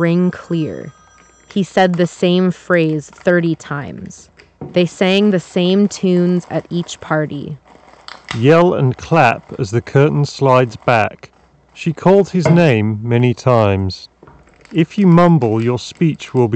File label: Office microphone sample; JBL Quantum 100P